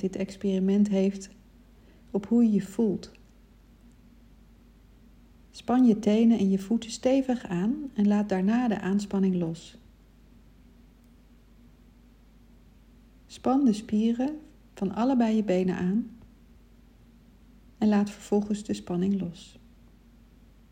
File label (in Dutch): Ontspannen